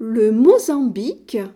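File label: "mozambique", French; gcompris-data; geography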